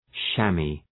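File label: "chamois", English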